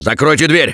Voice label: Close The Door, russian; Gambler